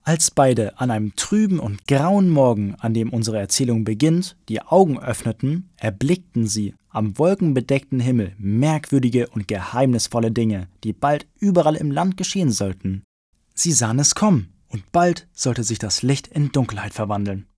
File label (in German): jungen; Licht in Dunkelheit